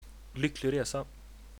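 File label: bon voyage, Swedish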